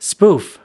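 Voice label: "spoof", American English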